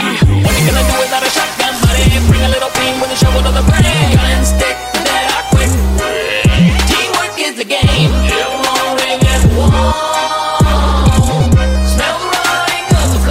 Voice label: Rap; L4D